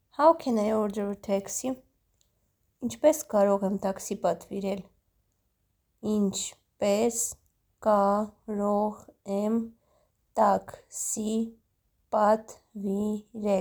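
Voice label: English "How can I order a taxi", Armenian "Inchpes karogh em"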